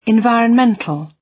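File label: "environmental", British; Language, linguistics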